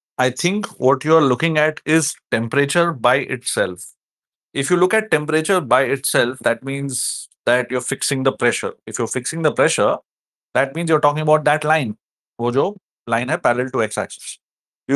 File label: voice message; Solutions - iTeachChem